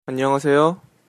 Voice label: hello, korean; 안녕하세요; annyeonghaseyo